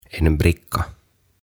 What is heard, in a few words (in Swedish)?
"En Bricka" "Tarjotin"